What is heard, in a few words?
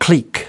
"clique", us